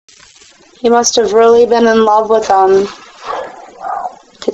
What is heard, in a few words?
speeking then response (possibly saying I know)